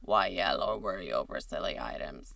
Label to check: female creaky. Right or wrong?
Right